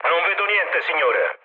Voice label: chat, speech it; War Thunder